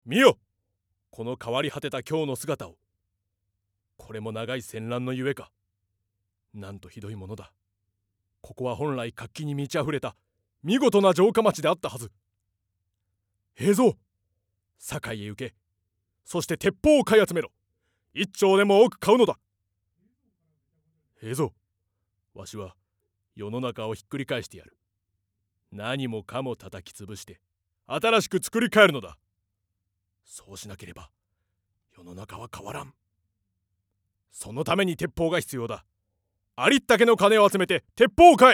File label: male voice